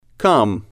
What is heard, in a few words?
"come", English